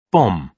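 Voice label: "bomb" British English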